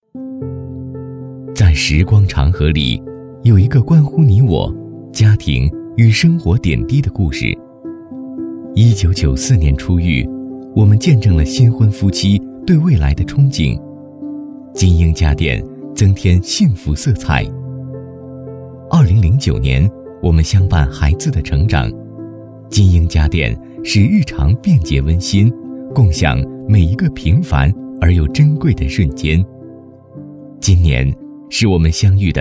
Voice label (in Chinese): 品质广告